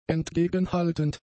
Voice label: "entgegenhaltend", German; adjectives